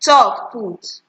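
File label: "talk put", Nigerian Pidgin, female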